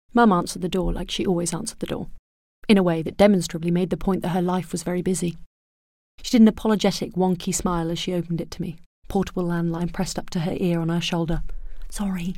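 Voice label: British English, Narration; GoLocalise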